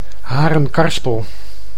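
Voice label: "Harenkarspel", Dutch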